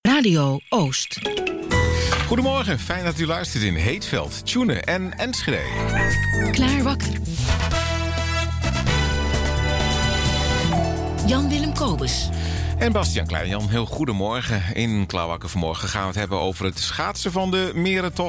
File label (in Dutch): radio aankondiging; TV Oost; KZT